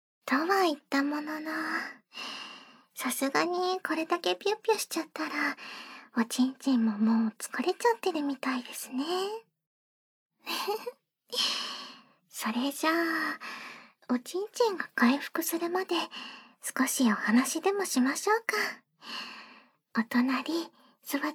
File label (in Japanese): ディープキスピロートーク, ASMR; ゆるふわナースの甘々